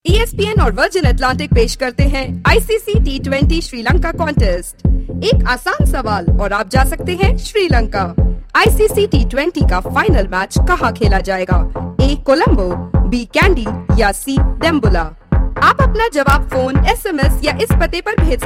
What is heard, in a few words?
IVR